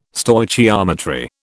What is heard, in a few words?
"Stoichiometry", British